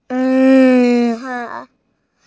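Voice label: "re4", Mandarin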